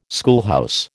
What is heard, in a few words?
"schoolhouse", American